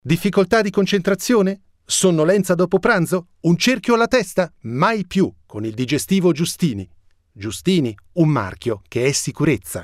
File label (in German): Kommentar Dynamisch